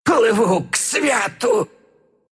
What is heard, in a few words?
death, ru; dota2